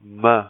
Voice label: "ma", letter, hindi

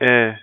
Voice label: "ai", letter, hindi

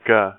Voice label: "ga", letter, hindi

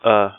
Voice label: "a", letter, hindi

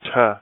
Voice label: "ta", letter, hindi

